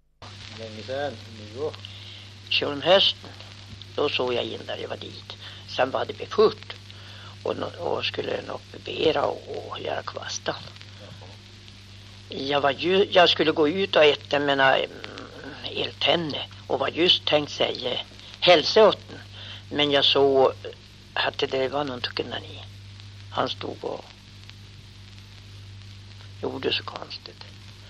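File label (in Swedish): Ragunda Jämtland, dialekter; Folkminnen; Svenska sagor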